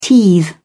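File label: "teethe", British English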